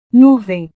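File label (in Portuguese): "nuvem", Portuguese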